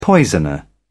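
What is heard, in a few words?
"poisoner", British English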